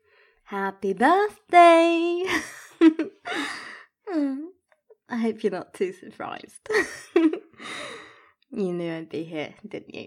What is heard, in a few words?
wet noises, giggle; F4M; Happy Birthday!; virgin fetish; don't be nervous.; I'll show you